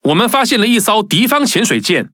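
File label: Chinese (Taiwan) Speech Detection Enemy Sub; World Of Warships; ZH TW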